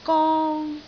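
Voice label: "gong1", Cantonese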